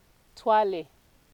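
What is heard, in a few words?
"twale", Nigerian Pidgin, female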